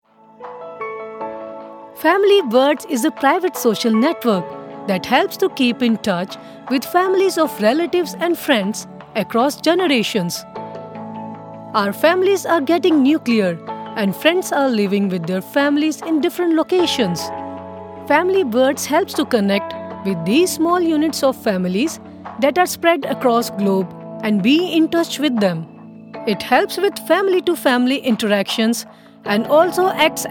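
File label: Explainer English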